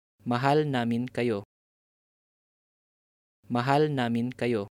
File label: We all love you, Tagalog